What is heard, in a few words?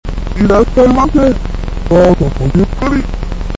sample low, voice